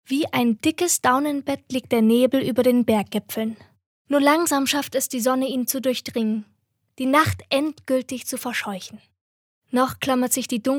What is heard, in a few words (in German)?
Schauspiel Variabel